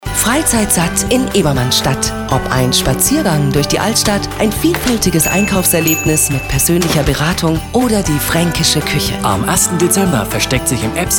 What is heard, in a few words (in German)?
Spot Weihnachtskalender; EBS; BauSpezi